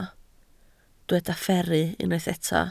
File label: "fferru", Welsh